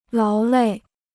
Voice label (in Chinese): "劳累", Chinese